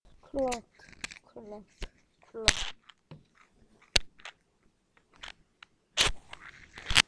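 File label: "clock", spoken word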